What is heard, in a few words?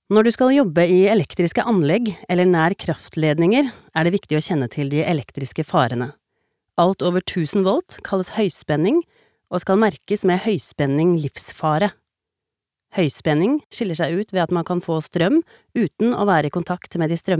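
no elearning, Norwegian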